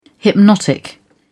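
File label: "hypnotic", British English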